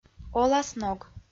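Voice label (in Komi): "оласног", Komi, female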